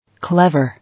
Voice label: "Clever", English